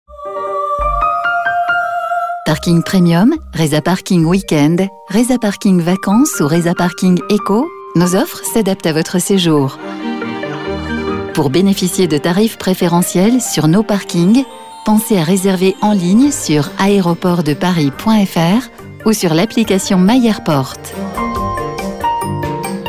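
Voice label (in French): habillage aeroport parking, voix off femme; paris; adp; aeroport de paris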